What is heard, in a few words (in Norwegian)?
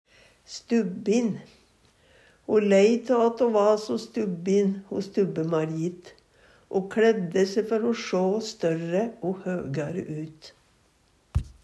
stubbin, Numedalsmål